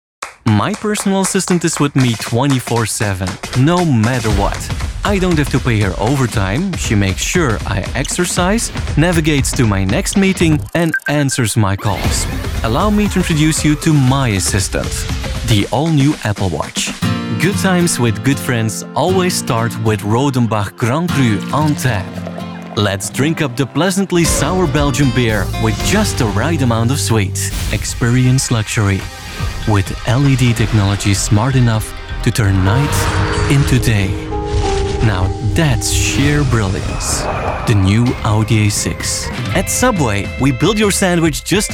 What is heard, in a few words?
Belgium Commercials ENG